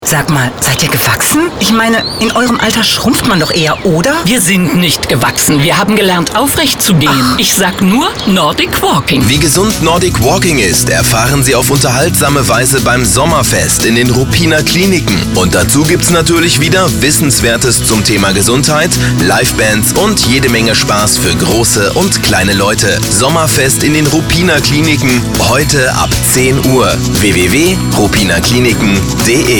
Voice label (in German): Gesundheitswesen Pharma Radiowerbung